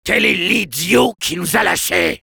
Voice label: Soldier jeers, fr